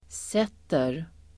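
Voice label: "sätter", Swedish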